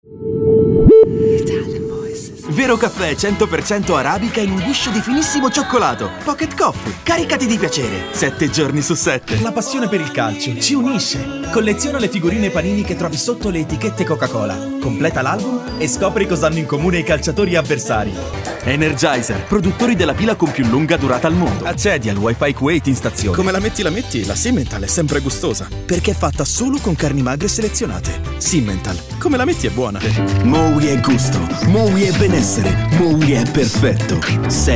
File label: ITALIAN VOICES Upbeat Fresh, male voices